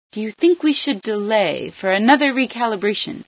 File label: delay again, female sci